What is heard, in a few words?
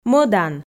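"мӧдан", Komi, female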